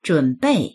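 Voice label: "zhun3bei4", Mandarin Chinese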